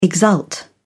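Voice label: "exult", British English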